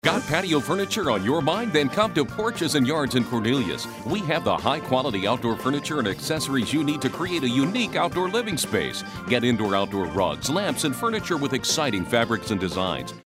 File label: upbeat